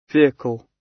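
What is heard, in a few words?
"vehicle" British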